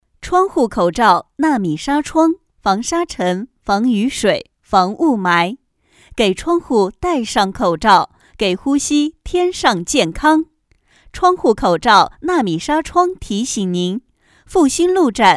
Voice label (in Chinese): 女 专题 公交车报站广告 稳重甜美, 国语女声配音